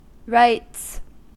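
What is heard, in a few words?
"rites", US English; pronunciations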